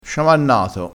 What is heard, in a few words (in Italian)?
"sciamannato", crespinese